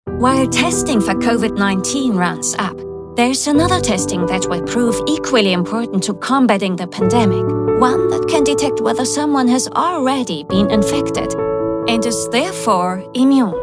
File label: commercial Covid19